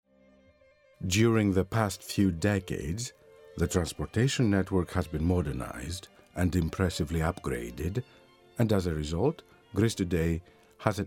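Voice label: Greek Accented English; GoLocalise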